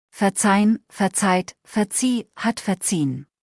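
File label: "verzeihen", German; verben kurzliste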